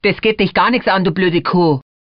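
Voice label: "bloede kuh", German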